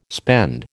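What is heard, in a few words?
"spend", American English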